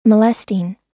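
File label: "molesting", American English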